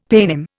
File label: "paynim", Indian; Dictionary